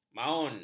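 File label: "maun", Malay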